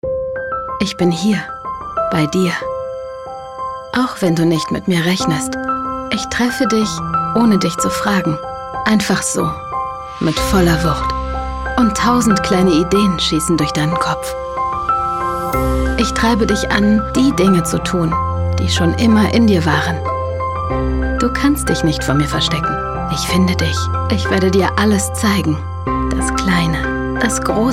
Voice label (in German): TV Werbung; westwing